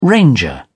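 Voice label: "ranger", British English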